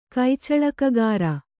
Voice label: "ಕೈಚಳಕಗಾರ", Kannada

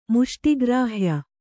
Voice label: "ಮುಷ್ಟಿಗ್ರಾಹ್ಯ", Kannada

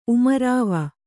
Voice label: "ಉಮರಾವ", Kannada

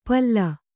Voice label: "ಪಲ್ಲು", Kannada

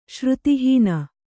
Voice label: "ಶ್ರುತಿಹೀನ", Kannada

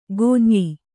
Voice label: "ಕುಂಯ್", kannada

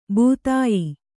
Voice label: "ಭಿದ್ಯ", Kannada

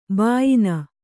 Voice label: "ಬಾಯಿನ", Kannada

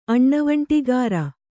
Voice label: "ಅಣ್ಣವಂಟಿಗಾರ", Kannada

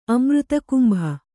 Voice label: "ಅಮೃತಕುಂಭ", Kannada

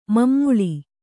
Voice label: "ಮಮ್ಮುಳಿ", Kannada

